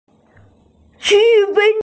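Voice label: "qu1fen1", Mandarin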